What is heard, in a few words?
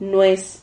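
"nues", Spanish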